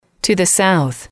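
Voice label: to the south, English, female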